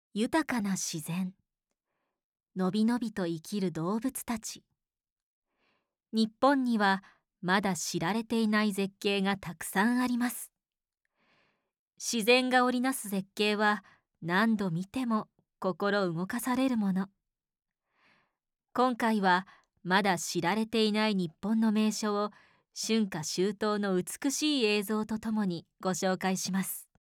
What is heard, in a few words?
narration; ナレーション